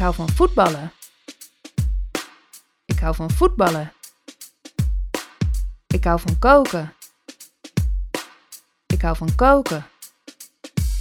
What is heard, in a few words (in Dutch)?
Taalriedel 80bpm; SPREEKTAAL Basis